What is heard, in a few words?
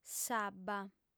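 "s'abba", Sardinian, female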